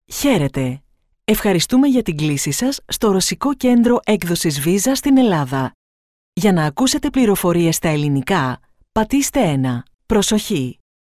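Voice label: Greek IVR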